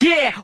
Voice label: "yeah", voice